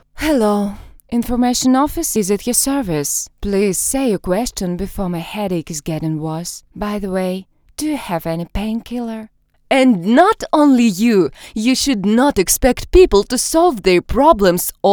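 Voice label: English language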